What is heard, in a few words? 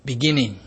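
"beginning", Nigerian Pidgin, male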